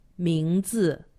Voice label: "mingzi", Chinese; Survival Chinese